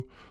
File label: Arabic